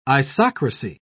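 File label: "isocracy", British English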